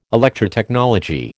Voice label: "Electrotechnology", American